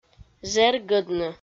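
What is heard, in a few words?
"жергӧдны", Komi, female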